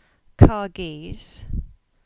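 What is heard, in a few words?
"Cargeese", English; place-names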